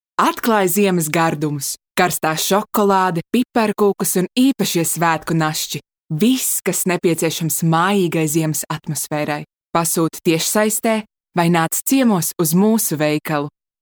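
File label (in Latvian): Reklama LV, Latvian advert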